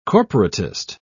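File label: "corporatist", British English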